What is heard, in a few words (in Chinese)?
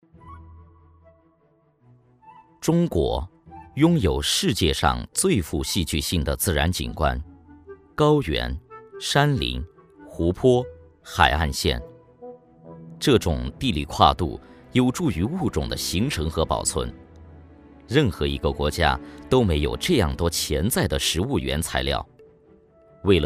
男 自然专题类 舌尖风格